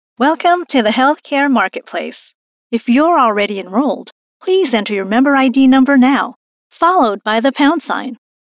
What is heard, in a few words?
Telephone IVR